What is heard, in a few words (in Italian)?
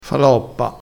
"faloppa", crespinese